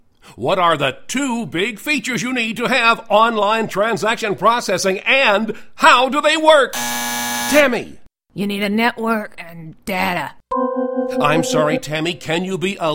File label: Employee Training Gameshow; ICL